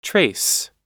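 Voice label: "trace", English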